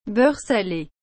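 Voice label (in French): "beurre salé", French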